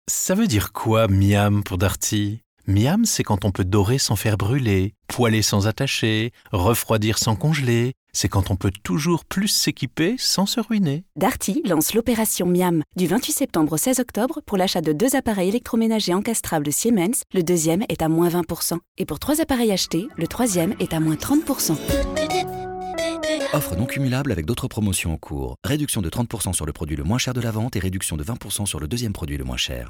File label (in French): RADIO; DARTY; MIAM; Siemens